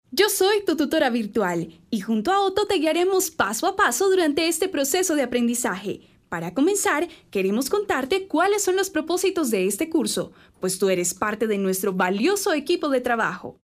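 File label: locutora colombiana colombian voiceover, female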